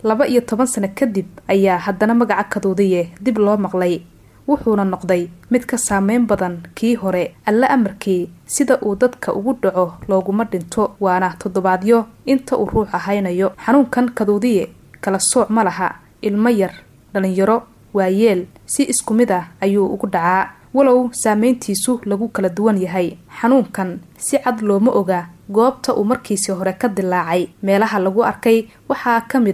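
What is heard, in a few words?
Somali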